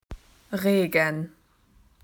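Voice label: "rain", Australian English; PictureDictionary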